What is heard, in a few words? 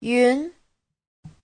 "yun2", Chinese; 小云; Giáo trình chuẩn HSK3